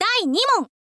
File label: "dainimon", girl; voice